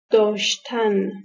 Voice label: "daashtan", Persian

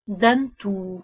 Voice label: "dantu", Lithuanian

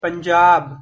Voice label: "Punjab", Punjabi

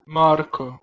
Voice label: "marko", Proto Germanic

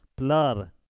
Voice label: Pashto plar

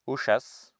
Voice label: "ushas", Sanskrit

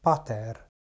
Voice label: "pater", Latin

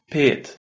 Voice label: "pet", Bosnian

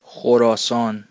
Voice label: "Khorasan", Persian

